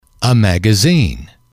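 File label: "a magazine", English, male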